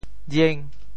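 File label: "jieng5", Teochew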